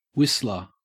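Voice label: "whistler" English, Australian; pronunciations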